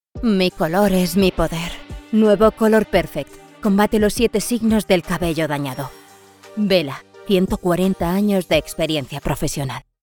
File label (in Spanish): TONO GRAVE; L'OREAL